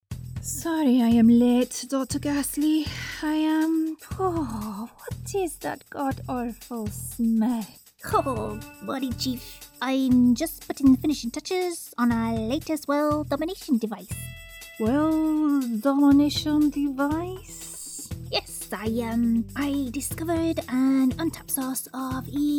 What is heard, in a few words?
Evil Badass